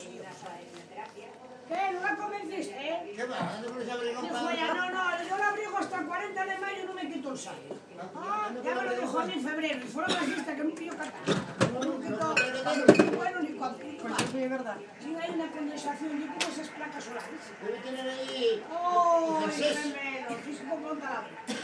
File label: random conversation at cafe, Spain; Belcris; Soto del Barco; Asturias